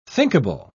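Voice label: "thinkable", British English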